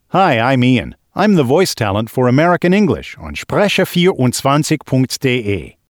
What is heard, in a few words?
us english